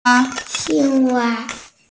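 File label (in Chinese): "qing1wa1", Chinese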